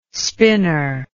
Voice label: "spinor", English